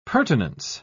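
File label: "purtenance", British English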